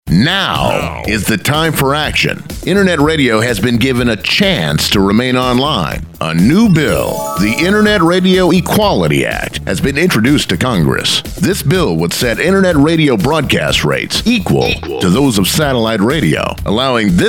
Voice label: PSA; SaveNetRadio